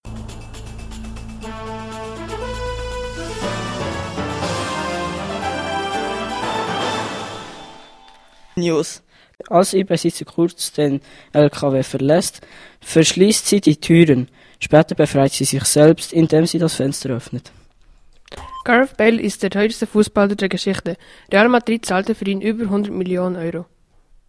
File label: News; Murten